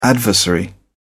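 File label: "adversary", British English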